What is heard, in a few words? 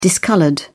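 "discoloured", English, British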